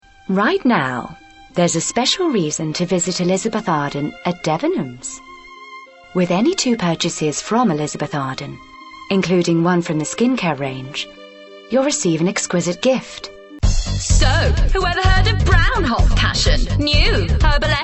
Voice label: adverts